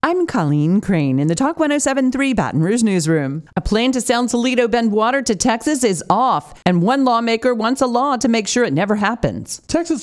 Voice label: Newscast; Guaranty